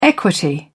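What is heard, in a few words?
"equity", British English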